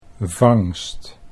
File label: "vangst", Dutch